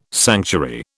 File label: "sanctuary", British